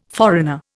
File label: "foreigner", Indian